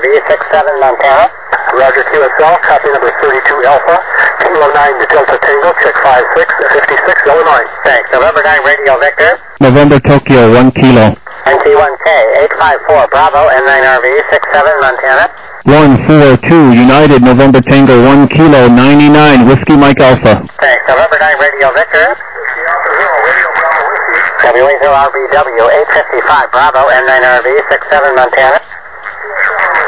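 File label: upper sideband 14MHz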